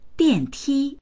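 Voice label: "dian4ti1", Chinese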